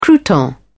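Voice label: "crouton", British English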